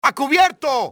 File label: take cover, spanish soldier; enemy; XBOX